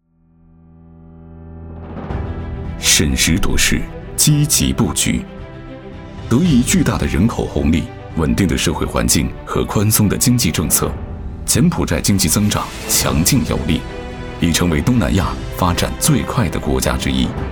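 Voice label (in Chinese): 专题企业双边贸易紧迫大气; 中缅双边贸易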